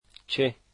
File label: what, Dari